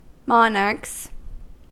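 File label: "monarchs", US English; pronunciations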